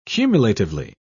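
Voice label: "cumulatively", British English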